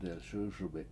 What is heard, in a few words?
"delS!S1bi7" Smooth, Washo